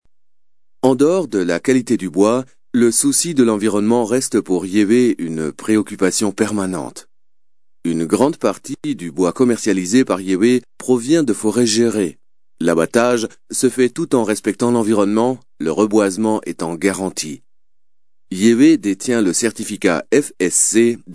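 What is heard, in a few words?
French male voice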